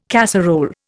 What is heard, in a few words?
"casserole", Indian